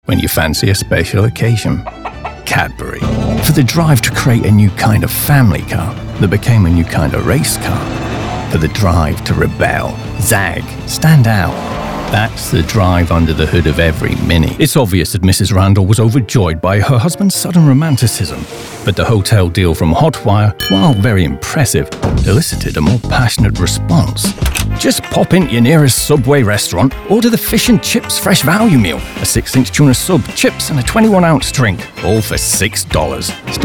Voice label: Commercial